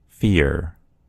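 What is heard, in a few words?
"fear", American English; gcompris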